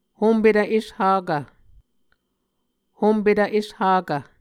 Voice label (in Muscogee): "hompetv eshakv", Muscogee